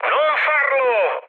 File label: bomb failure mood high, speech it; War Thunder